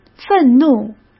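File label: "愤怒", Mandarin Chinese; chinese-flashcards; hsk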